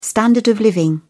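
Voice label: "standard of living", British English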